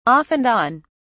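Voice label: "off and on", British English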